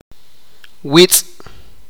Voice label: "delgado de objetos cilindricos", sipakapense